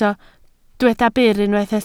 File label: "byr", Welsh